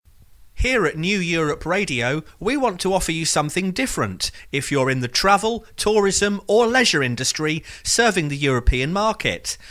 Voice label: advertising promo

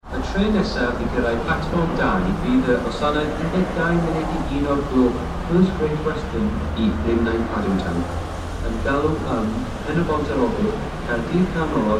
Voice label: train announcements in welsh then english; port talbot to london

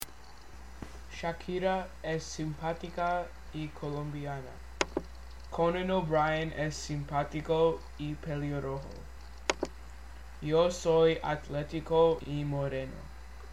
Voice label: spanish

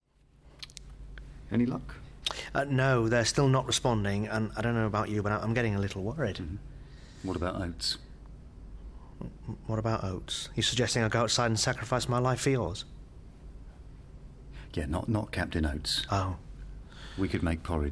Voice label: comedy set; in sub antarctica

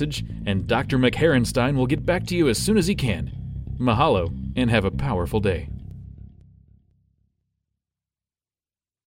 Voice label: voicemail example with music bed

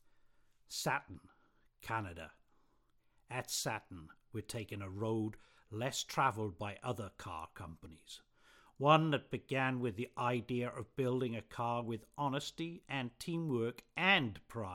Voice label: cars advert commercial; saturn

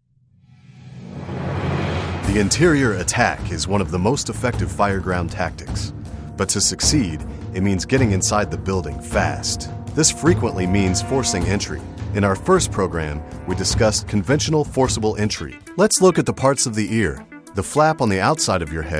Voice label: narration

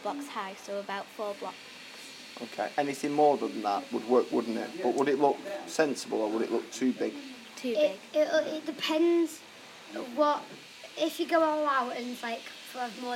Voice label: Two children explain floor height for buildings; minecraft

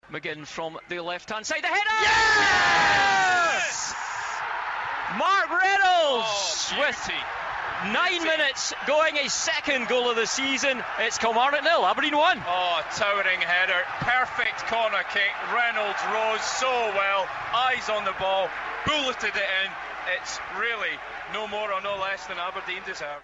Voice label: goal commentary; Kilmarnock; Red TV

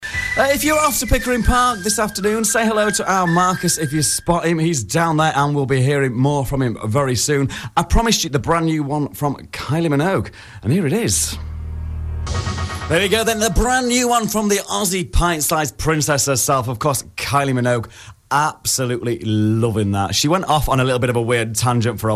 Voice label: radio presenter

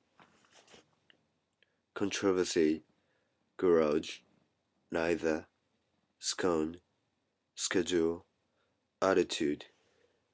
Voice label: male, Tokyo Japan

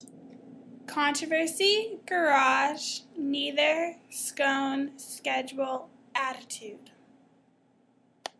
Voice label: female

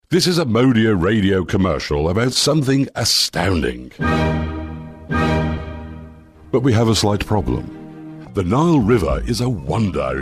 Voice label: British voice talent

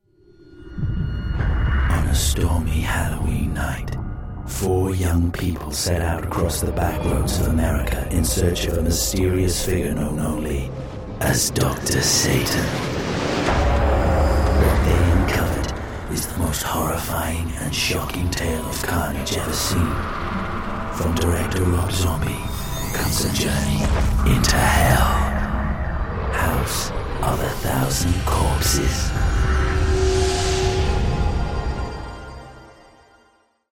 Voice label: film trailer

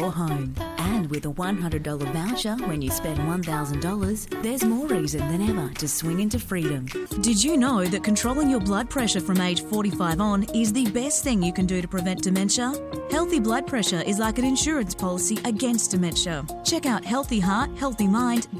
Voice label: commercial voice over

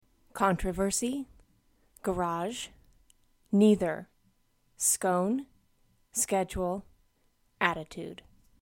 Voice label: aurora colorado female

